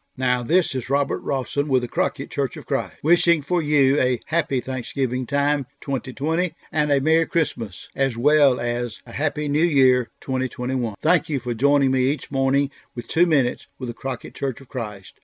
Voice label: Radio Greeting